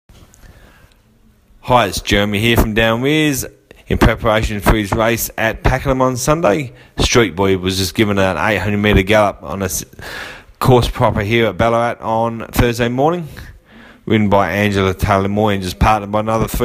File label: voice note; Street Boy PLANK